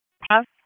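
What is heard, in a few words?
Sheriff's Office Dispatch; WSO DISP 1; wake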